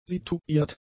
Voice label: "situiert", german; adjectives

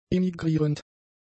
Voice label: "emigrierend", german; adjectives

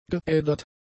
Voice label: "geädert", German; adjectives

